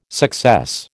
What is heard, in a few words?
"success", American